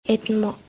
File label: "Etmo", Konyak; Will open